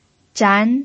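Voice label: "zhan" Mandarin, second tone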